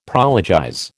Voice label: "prologize", American